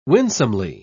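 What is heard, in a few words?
"winsomely", British English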